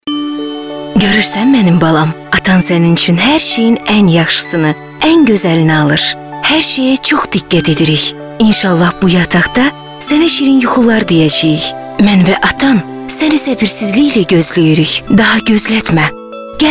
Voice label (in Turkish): Azerice Kadin, Azerice seslendirme